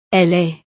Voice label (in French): "elle est", frances